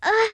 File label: damage merchant female